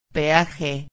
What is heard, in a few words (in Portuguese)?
"peaje", espanhol